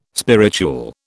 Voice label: "spirituel", British